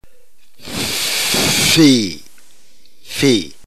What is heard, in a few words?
"phi", Greek alphabet